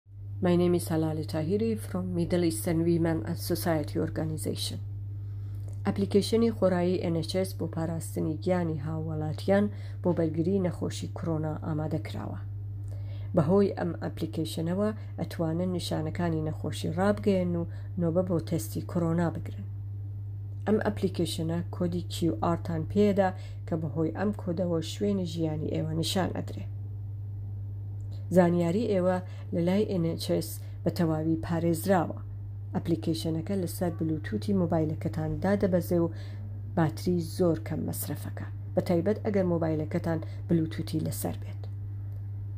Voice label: nhs covid19 app kurdish sorani translation